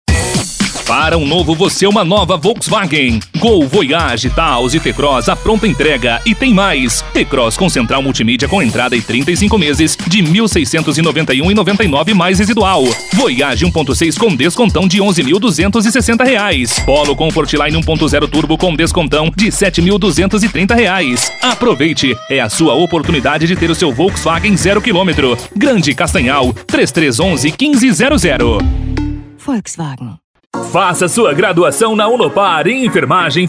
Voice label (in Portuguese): locutor